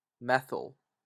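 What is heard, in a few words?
"methyl", Canadian English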